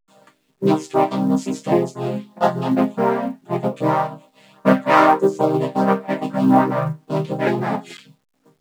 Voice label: Vocoder